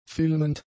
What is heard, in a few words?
"filmend", German; adjectives